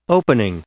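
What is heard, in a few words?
"opening", English; noun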